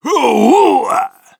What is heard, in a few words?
Soldier positive vocalization, ru